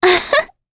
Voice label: Happy; Talisha; kings raid